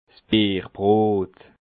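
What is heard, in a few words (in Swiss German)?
"bierbrot", Bas Rhin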